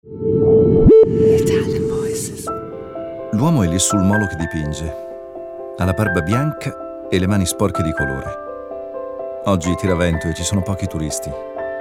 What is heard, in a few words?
ITALIAN VOICES, male voices; Naked Reel